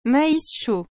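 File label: "mais chaud", French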